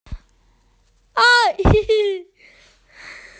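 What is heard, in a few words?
"aunt", Mandarin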